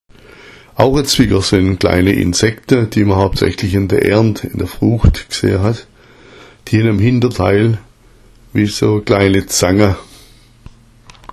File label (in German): aorazwicker, schwaebisch; In früheren Zeiten verwendeten Ärzte das Pulver toter Ohrenkneifer (Insekten) als Mittel gegen Taubheit oder Ohrprobleme.